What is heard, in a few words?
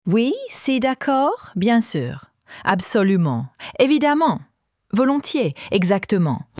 yes in french